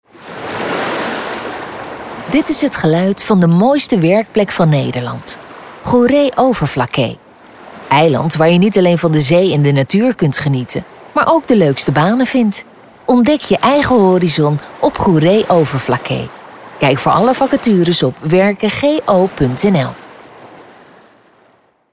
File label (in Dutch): Spot Eilandmarketing